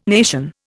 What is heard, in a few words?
"nation", Indian